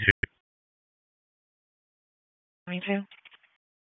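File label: Police Dispatch, p25; Raleigh Police Southwest District Disp; Wake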